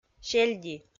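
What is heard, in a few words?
"сельди", Komi, female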